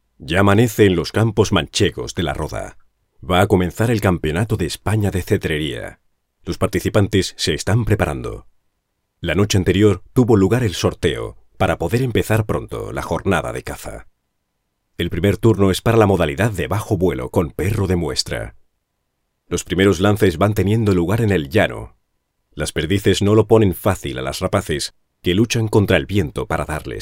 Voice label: 西班牙语男 外语 小语种 欧州 浑厚

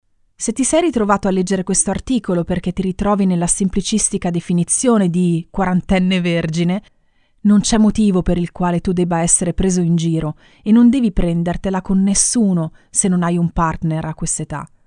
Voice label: 意大利语女 外语 小语种

